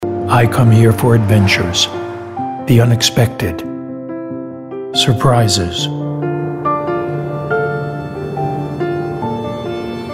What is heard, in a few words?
English, english male voices american accent